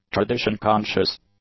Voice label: "tradition-conscious", English; adjectives

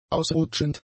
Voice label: "ausrutschend", German; adjectives

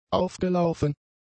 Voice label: "aufgelaufen", German; adjectives

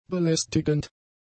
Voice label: "belästigend", German; adjectives

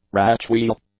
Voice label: "ratch-wheel", English; nouns

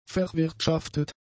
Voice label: "verwirtschaftet", German; adjectives

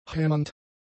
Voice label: "hämmernd", German; adjectives

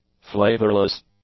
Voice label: "flavourless", english; adjectives

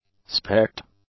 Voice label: "specked", english; adjectives

